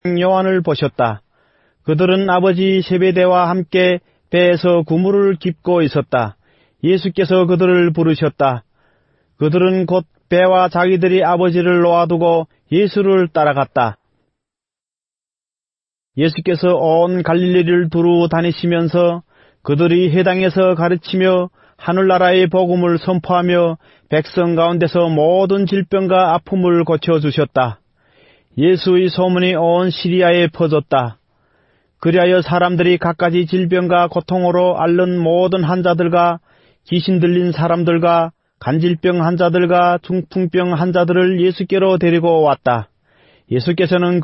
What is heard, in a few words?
Satellite Broadcast Korean Bible Sample